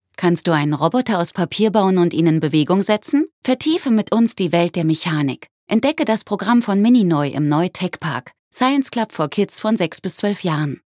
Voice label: Radio Freundlich

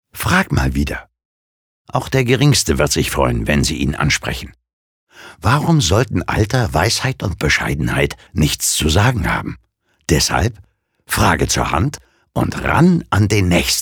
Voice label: Werbung Freundlich

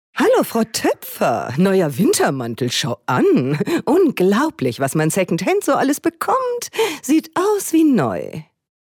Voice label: Schauspiel Dynamisch

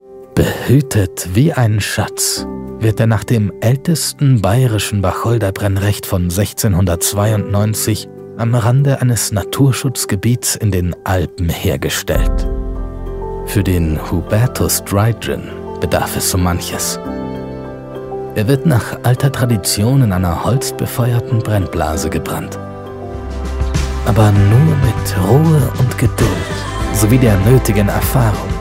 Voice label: Sanft

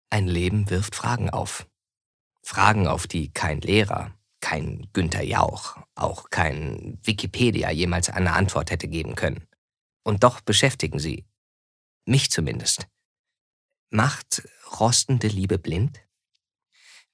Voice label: Schauspiel Variabel

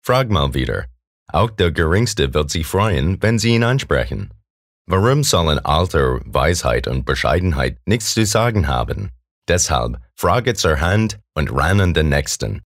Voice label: Akzent US

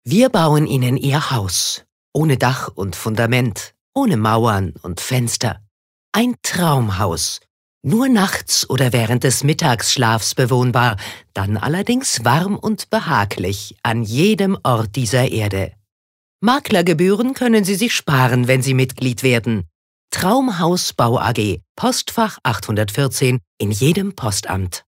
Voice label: Werbung Freundlich

